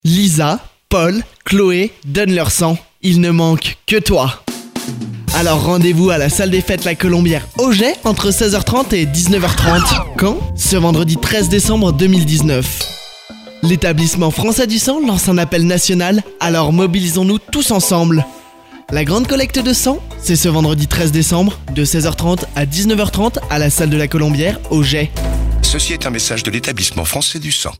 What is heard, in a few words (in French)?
spot don sang